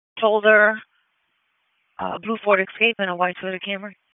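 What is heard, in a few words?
Police Southwest District Dispatch; Raleigh; wake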